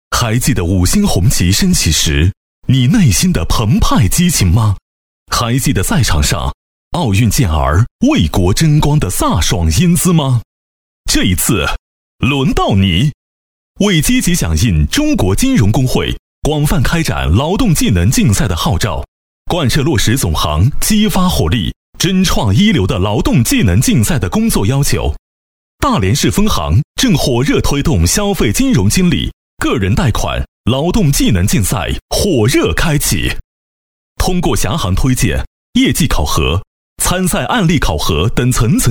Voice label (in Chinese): 男 竞赛宣传片 反问 激情有力; 磁性质感 沉稳大气